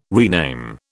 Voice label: "rename", British

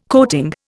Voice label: "coating", Indian

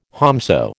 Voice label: "whomso", American

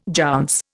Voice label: "jounce", Indian

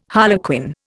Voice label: "harlequin", Indian

